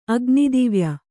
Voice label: "ಅಗ್ನಿದಿವ್ಯ", kannada

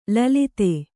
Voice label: "ಲುಲಿತ", Kannada

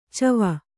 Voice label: "ಚವ", Kannada

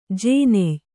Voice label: "ಜೋನಾಯ್", Kannada

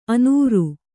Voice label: "ಅನೂರು", kannada

